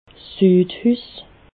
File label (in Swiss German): "sudhus", alsace